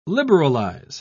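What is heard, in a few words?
"liberalise", British English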